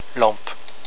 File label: "lampe", french; la/une lampe